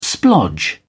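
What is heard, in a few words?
"splodge", British English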